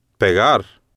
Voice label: "pegar", spanish